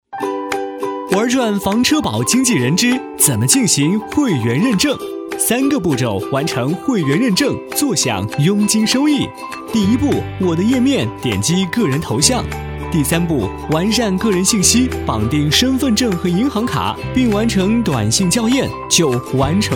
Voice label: 男 飞碟说 房车宝经纪人; 玩转房车宝经纪人

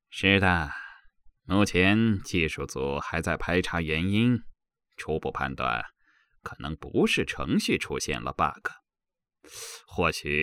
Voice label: 男 角色 自然 素人音 奸诈的男音

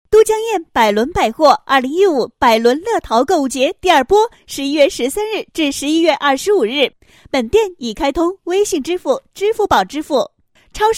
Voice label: 女 促销; 都江堰百伦百货活动促销

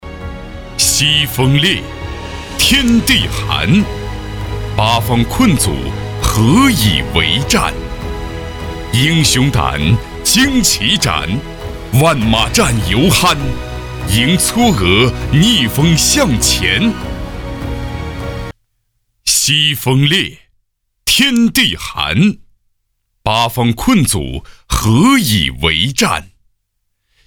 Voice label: 男 专题; 西风烈

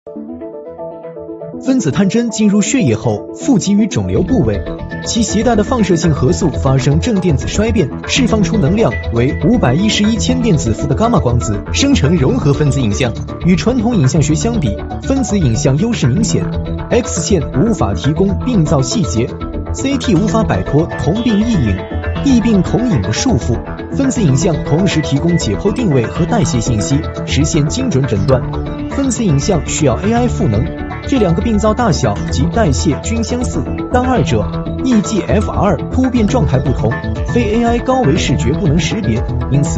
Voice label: 男 科技专题

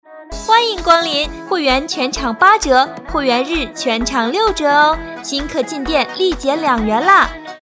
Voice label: 女 促销 奶茶店

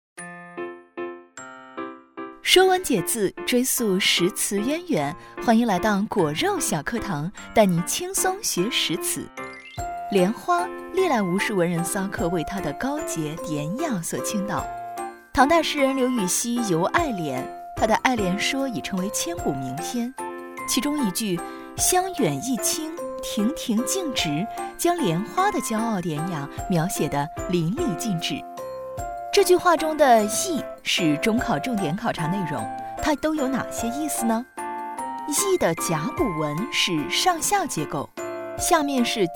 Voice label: 女 课程; 果肉小课堂

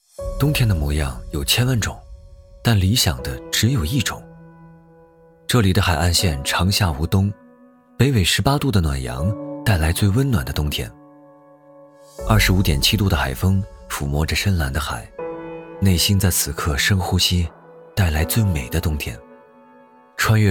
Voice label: 男 广告 抒情 温暖 房产广告片; 冬天的模样

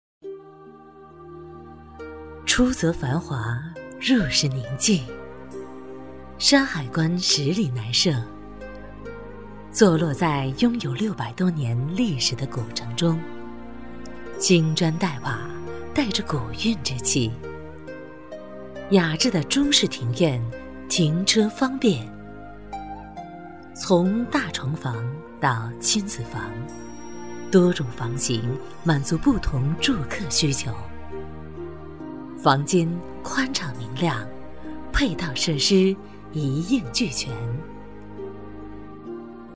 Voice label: 女 房产介绍

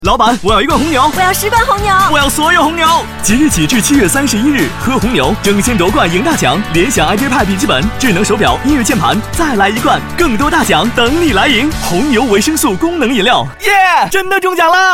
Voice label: 男 激情促销; 红牛

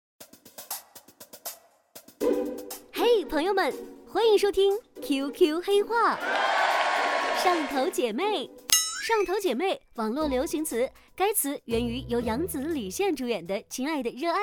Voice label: 女 MG 黑话百科

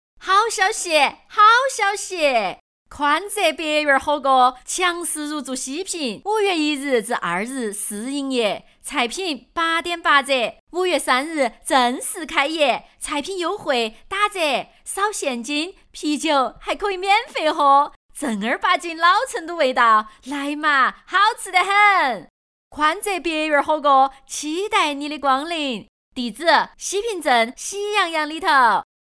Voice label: 女 火锅川话促销; 宽窄别院

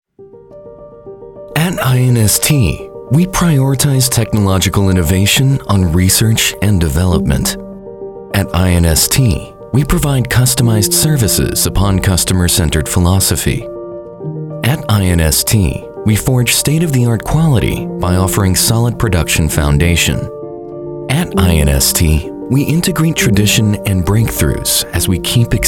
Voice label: 外籍男 宣传片 年轻

